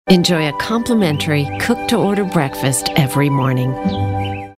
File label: classy sophisticated; Hotel Chain